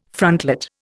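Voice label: "frontlet", Indian English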